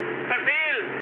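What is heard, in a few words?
German bomb failure mood high, speech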